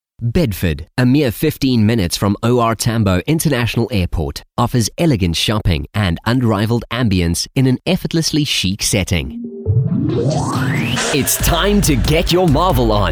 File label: English South African commercial; Inglês (Internacional) Inglês (escocês) Inglês (sul-africano); África do Sul; dublador profissional de inglês